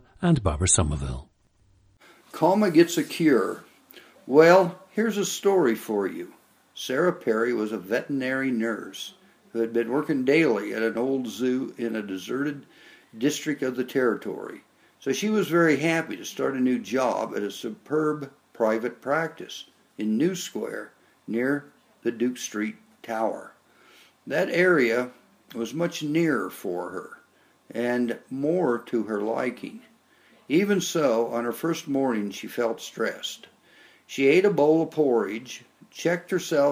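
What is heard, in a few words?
nebraska, International Dialects of English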